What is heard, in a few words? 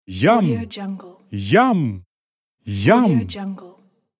male voice yum